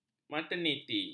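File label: "materniti", Malay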